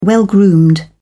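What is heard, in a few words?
"well groomed", British English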